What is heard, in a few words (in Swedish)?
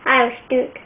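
"halsduk", Swedish; tdegames; ktuberling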